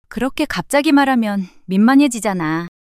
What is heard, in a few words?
shy; monologue